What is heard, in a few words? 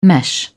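"mesh", British English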